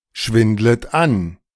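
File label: "schwindlet an", German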